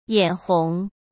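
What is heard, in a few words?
"眼红", Mandarin Chinese; chinese-flashcards; hsk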